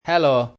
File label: "Hello" Bajan, Greetings, male